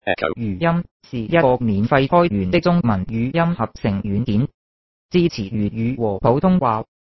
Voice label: cantonese; ekho 7.7.1